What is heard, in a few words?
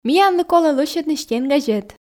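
"миянлы колӧ лӧсьӧдны стенгазет", Komi, female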